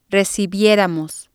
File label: "recibieramos", Spanish